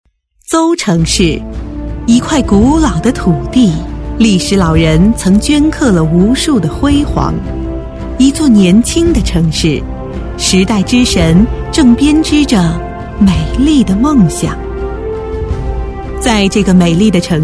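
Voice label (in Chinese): 女国大音-大气稳重; 【专题】邹城城市规划馆-大气稳重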